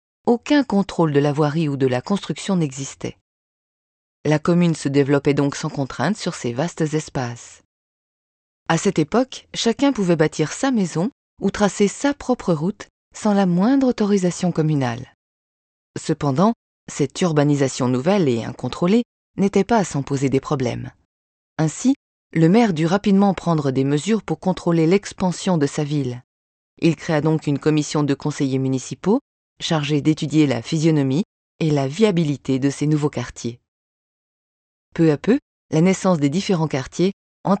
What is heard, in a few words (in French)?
AUDIOGUIDE MUSEE SAINT MAUR